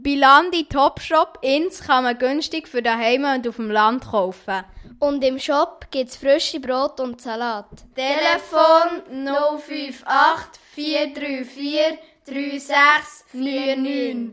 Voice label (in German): Werbung Landi Topshop